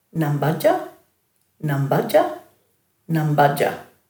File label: "nambadja", Dhurga